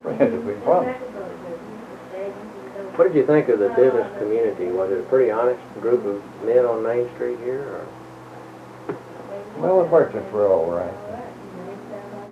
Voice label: Oral history with 80 year old white male Marked Tree Arkansas, american english dialect